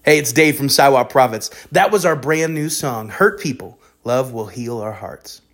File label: song backsell